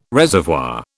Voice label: "reservoir", British